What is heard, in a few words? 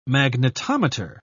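"magnetometer", British English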